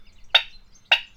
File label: voicetrack